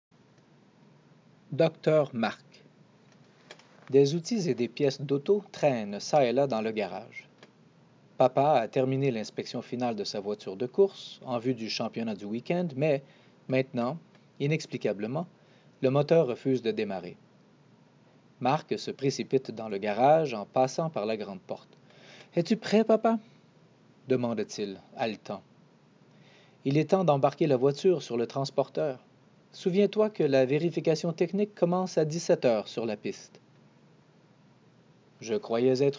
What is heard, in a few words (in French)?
narratif, Tests de lecture